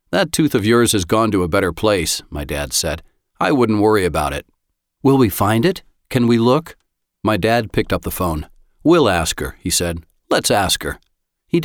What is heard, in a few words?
American English Audio Books